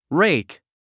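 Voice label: "rake", English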